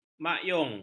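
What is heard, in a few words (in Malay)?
"makyung", Malay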